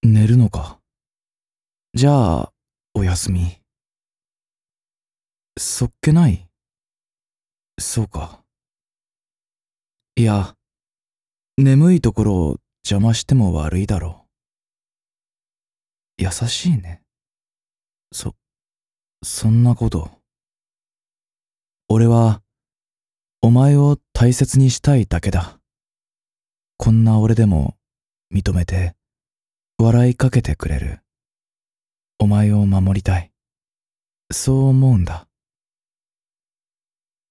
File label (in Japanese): 「おやすみ」クール